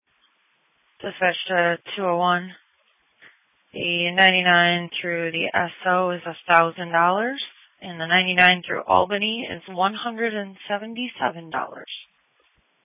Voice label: Police Dispatch